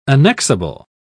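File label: "annexable", British English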